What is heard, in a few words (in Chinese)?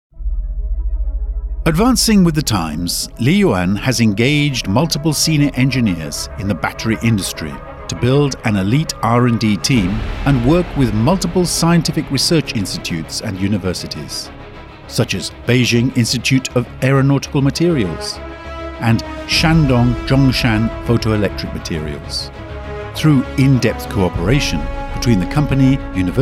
外籍 母语 男 企业宣传